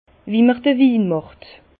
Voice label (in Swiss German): "winmacht", Bas Rhin; la vignification; vin